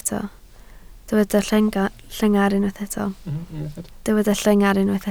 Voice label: "llengar", Welsh